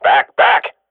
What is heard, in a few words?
radio bot fallback, swat; Counter Strike Model Pack; CS:GO